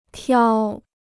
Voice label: "挑", Chinese